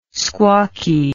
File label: "squawky", English